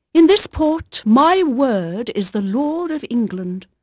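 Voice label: English female, voice; Pirates Of The Caribbean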